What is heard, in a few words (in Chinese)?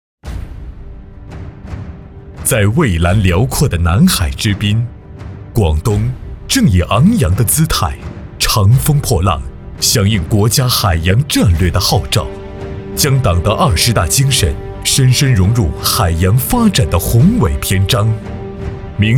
男 宣传片; 打造海上新广东